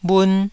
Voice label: "bhun1", taiwanese Syllables

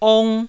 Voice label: "ong1", Taiwanese

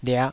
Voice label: "liah5", Taiwanese syllable

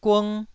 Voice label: "gung1", Taiwanese syllable

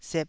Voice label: "sep2", taiwanese syllable

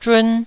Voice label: "zhun1", Taiwanese

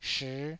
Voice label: "shii5", taiwanese Syllables

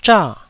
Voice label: "zha4", taiwanese syllables

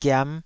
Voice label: "giam5", Taiwanese syllable

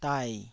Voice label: "dai3", Taiwanese syllable

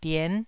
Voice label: "dien9", Taiwanese

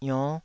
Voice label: "ionn5", taiwanese syllables

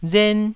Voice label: "ren1", taiwanese

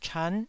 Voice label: "chan9", Taiwanese

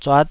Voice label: "zuat5", taiwanese

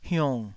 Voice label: "hiung3", taiwanese

